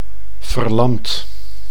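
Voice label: "verlamd", Dutch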